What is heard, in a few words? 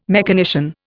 "mechanician", Indian